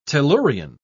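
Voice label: "tellurian", British English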